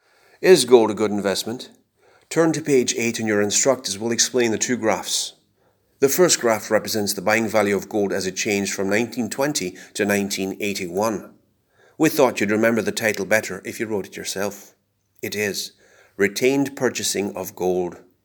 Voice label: irish